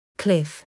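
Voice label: "cliff", English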